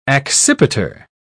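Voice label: "accipiter", British English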